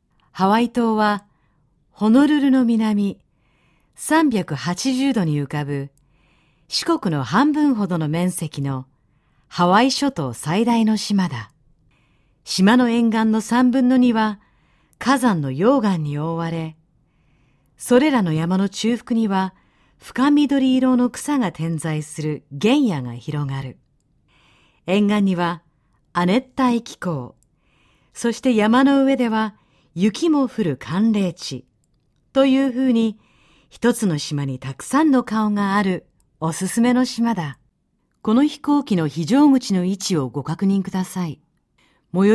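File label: Japanese, narration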